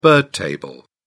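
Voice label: "bird table", British English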